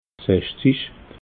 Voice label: "sachszig", alsace

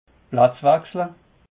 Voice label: "pfatzwechsel", alsace

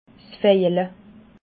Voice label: "vejele", alsace

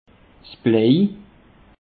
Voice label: "blej", alsace; peche

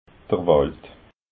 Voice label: "wald", alsace

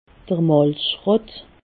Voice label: "malzschroot", alsace

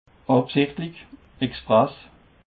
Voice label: "absichtlich", Alsace

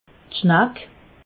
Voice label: "schnack", alsace

